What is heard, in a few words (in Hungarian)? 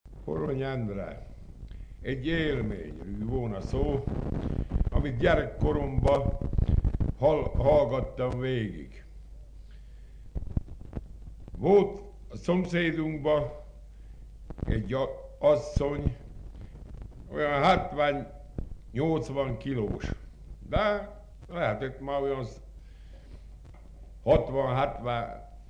nyelvjaras gyujtesek